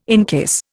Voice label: "encase", Indian

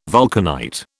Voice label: "vulcanite", British

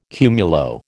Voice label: "cumulo", American

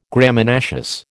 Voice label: "Graminaceous", American

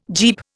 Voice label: "Jeep", Indian

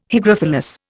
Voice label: "hygrophilous", Indian

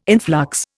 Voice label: "influx", Indian

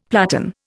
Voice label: "platan", Indian; Dictionary

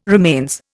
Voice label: "remains", Indian

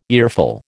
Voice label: "earful", American

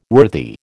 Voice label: "worthy", American

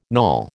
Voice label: "knawel", American

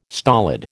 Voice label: "stolid", American

